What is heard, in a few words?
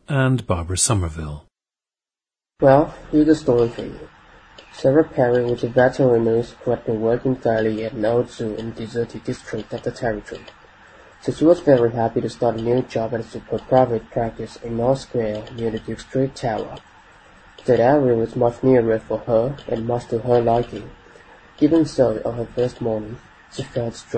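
jiangsu, dialects of English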